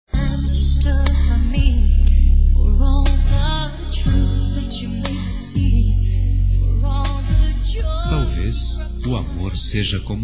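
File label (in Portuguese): romantico, Telemensagens, Românticas masculino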